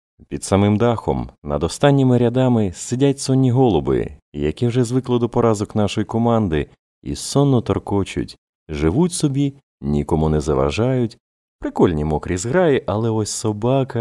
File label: ukrainian